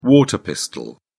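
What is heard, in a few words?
"water pistol", British English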